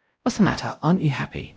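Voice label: Voice Acting Spanish, male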